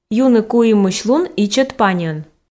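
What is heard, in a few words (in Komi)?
юны куимысь лун ичӧт паньӧн, Komi, female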